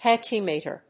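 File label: "Pachymeter", English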